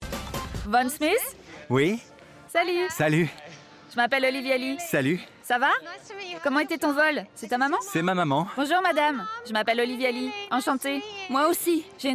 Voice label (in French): demo voice over, voix; VOICE-OVER (Opening act/TV réalité) – adulte - medium - présentatrice - souriant/amicale